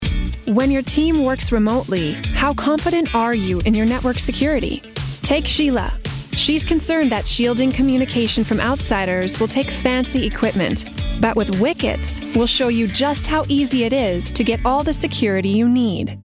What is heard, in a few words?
Commercial; Wickets